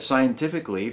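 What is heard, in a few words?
"scientifically", US English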